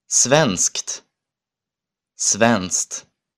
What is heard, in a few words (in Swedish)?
svenskt